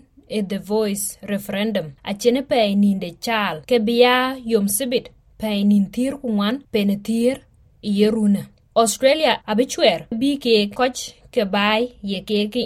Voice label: dinka; Referendum bï rot loïkocke Indigenous pään Australia; The voice Referendum date has been announced for Saturday 14 October 2023.; SBS Dinka